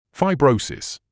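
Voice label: "fibrosis", British English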